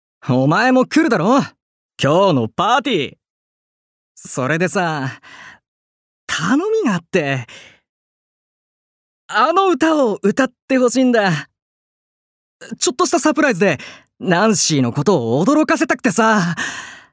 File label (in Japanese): 外画